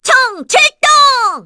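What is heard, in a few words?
Vox Skill, Korean; Miruru; kingsraid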